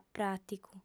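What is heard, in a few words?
"pràticu", female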